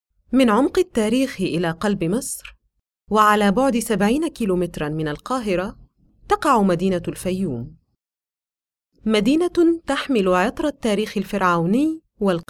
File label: arabic female